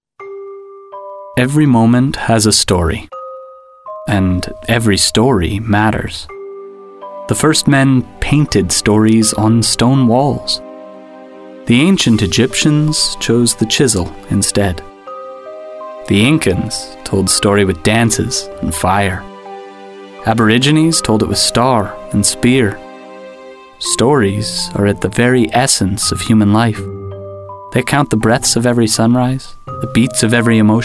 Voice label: Documentary